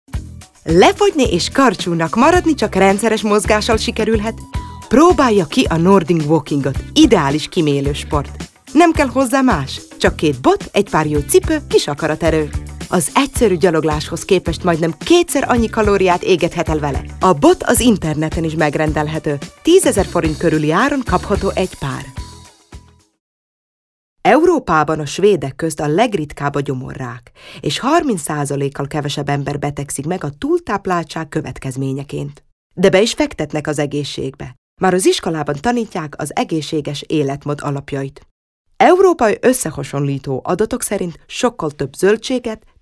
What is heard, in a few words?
female Hungarian compilation